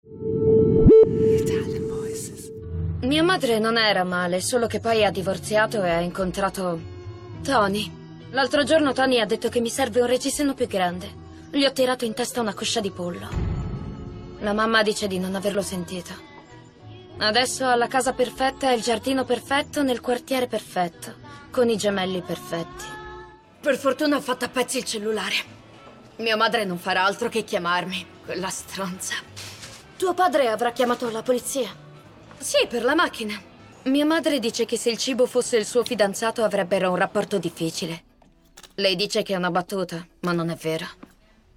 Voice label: ITALIAN VOICES Cinema Dubbing, female voices

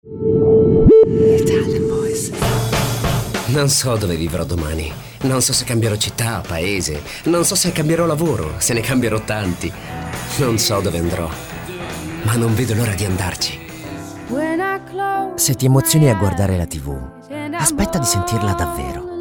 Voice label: ITALIAN VOICES Young Commercial, male voices

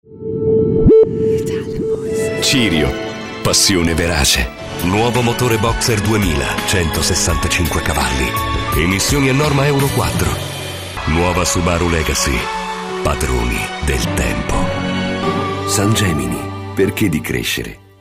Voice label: ITALIAN VOICES Authoritative Intense, male voices

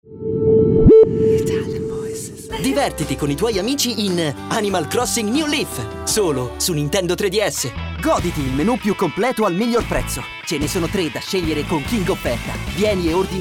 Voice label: ITALIAN VOICES Commercials, male voices

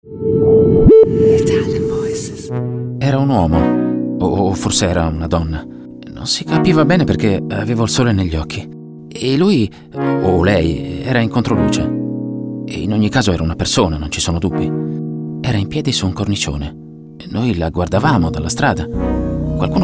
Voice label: Naked Reel, Male Voices, ITALIAN VOICES

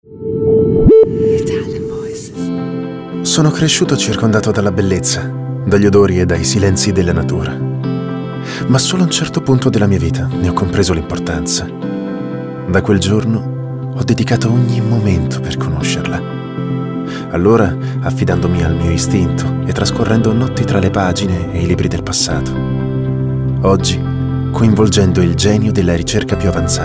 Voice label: ITALIAN VOICES Natural Cool, male voices

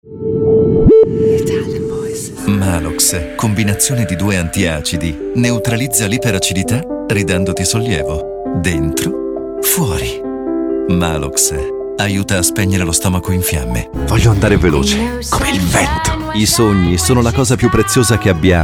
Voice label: ITALIAN VOICES Reassuring Smooth, male voices

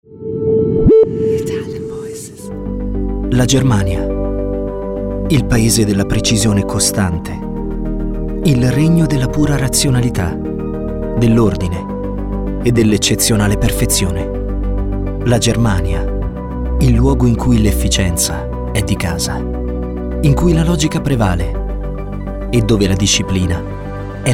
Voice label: ITALIAN VOICES Straight Clean, male voices

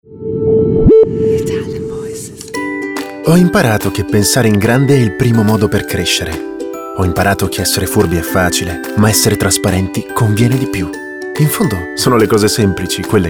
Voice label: ITALIAN VOICES Genuine Friendly, male voices

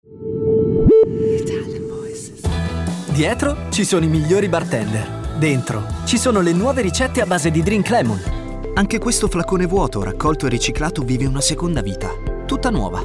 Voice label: ITALIAN VOICES Fresh Natural, male voices

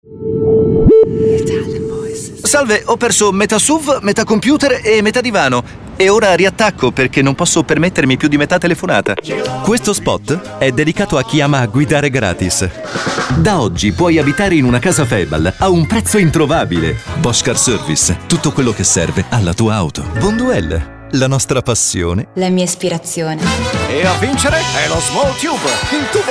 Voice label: ITALIAN VOICES Friendly Nice tones, male voices

